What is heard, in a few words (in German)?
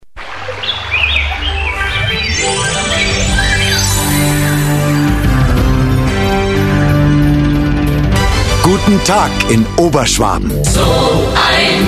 werbung; schussenrieder